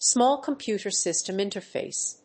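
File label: "small computer system interface", English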